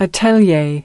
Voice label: "atelier", us

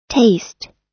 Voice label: "taste", us

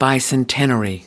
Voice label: "bicentenary bicentennial", us

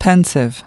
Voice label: "pensive", us

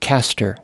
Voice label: "caster", us

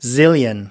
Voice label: "zillion", us

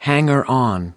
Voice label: "hanger on", us

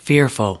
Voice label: "fearful", us

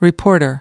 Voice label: "reporter", us

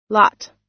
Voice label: "lot", us

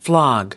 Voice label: "flog", us

